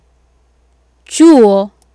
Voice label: "chuo", Swahili